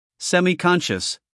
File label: "semiconscious", US English, male; everyone-can-use-english